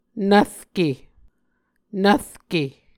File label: "nvrke", Muscogee